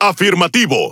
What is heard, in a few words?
Es Speech Quick Commands Aye Aye; World Of Warships